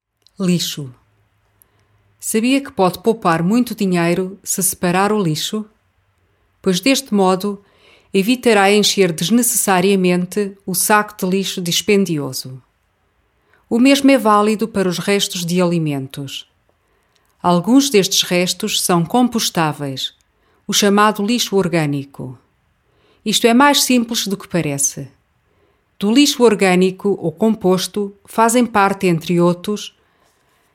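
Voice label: Portuguese; abfall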